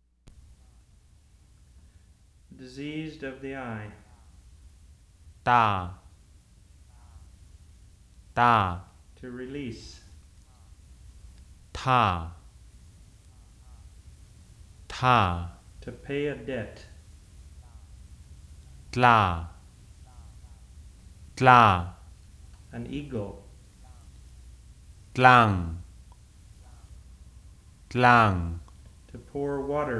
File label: Rhade